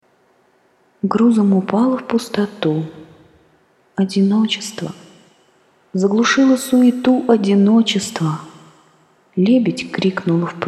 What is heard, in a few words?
echo; how to echo in adobe audition